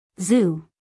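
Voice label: "zoo", American English, female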